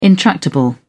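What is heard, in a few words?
"intractable", British English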